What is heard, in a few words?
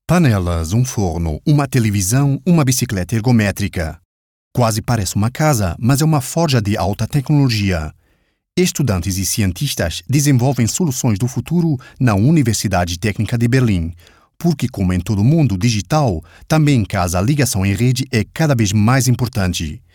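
Brazilian Portuguese narration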